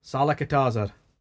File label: "sallikatazaar", Cromarty; [sal-ah-ka-TAH-zur]; a skelp (slap); Word; Threat